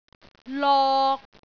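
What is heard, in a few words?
"lok3", Cantonese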